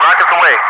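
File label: "rockets away", English speech, Wingman; Messages; DCS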